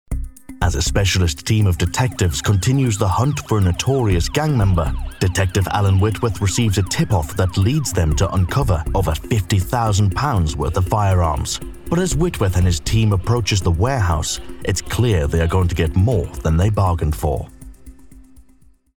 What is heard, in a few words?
english british documentaries